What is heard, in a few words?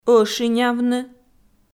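"ӧшинявны", Komi, female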